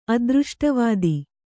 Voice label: "ಅದೃಷ್ಟವಾದ", kannada